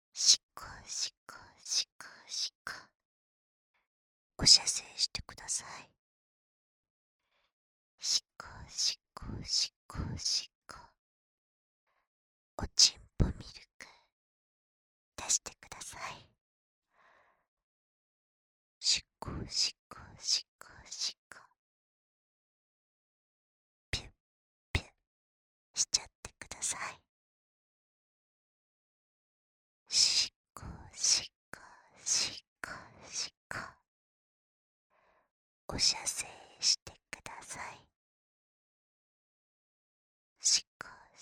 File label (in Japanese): バイノーラルささやきオナサポミニボイス L, ASMR